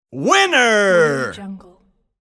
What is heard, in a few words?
male voice winner; applehillstudios